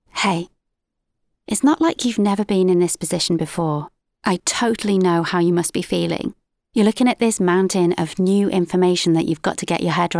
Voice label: Quirky Training Video